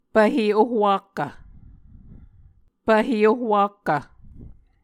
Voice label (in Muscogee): "pvhe ohwakkv", Muscogee